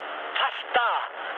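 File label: German near waypoint mood med, speech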